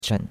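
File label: "chen3", Chinese; Cours-de-Chinois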